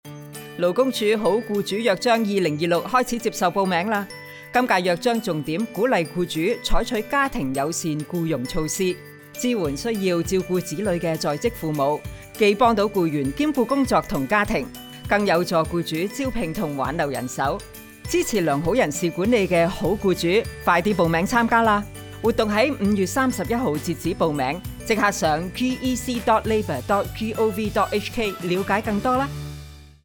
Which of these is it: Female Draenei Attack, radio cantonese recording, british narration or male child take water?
radio cantonese recording